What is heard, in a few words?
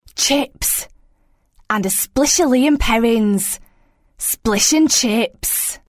Commercial Endline; Lea N Perrins